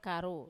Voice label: "karu", behind, Wamesa